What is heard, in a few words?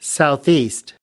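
"southeast", American English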